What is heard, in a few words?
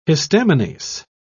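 "histaminase", British English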